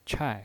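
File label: "chai", Mandarin Chinese, fourth tone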